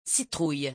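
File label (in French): "citrouille", French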